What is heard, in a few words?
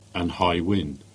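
"Whitehay" Cornish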